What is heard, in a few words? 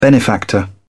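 "benefactor", English, British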